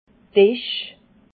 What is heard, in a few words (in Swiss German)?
"esch", alsace